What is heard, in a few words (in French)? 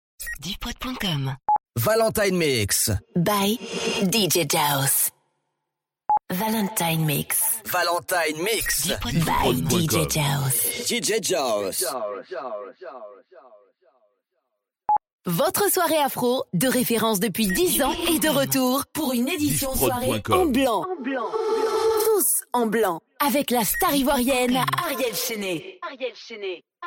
Drops A cappellas, habillage